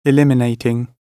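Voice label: "eliminating", British English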